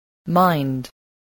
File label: "mind", voice, spelling